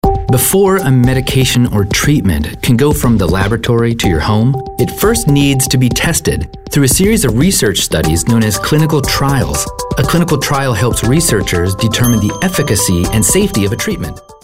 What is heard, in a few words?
Informative